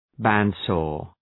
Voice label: "bandsaw", English